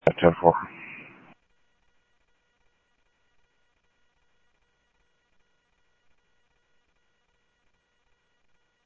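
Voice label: Sheriff West County, scanner audio trunk; ThompsonPeakScot; MCSO - WEST; Sheriff-WestCnty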